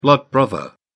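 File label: "blood brother", British English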